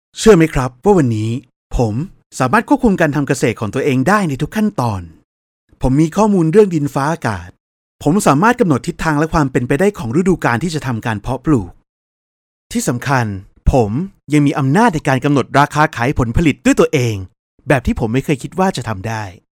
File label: thai